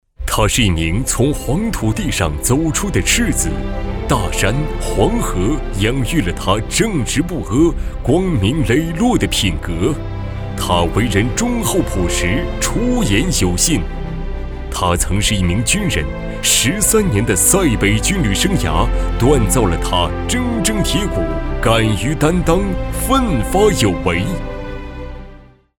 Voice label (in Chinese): 旁白 人物大气 解说词